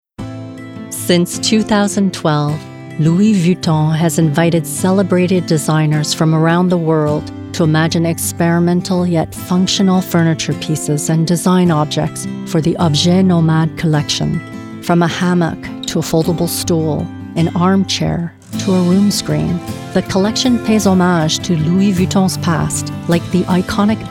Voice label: CORPORATE; LOUIS VUITTON